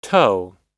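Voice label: "toe", US English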